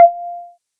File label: recalc, GPS